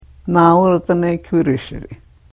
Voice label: karuk